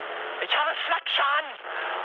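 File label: German damaged aa mood high, speech; War Thunder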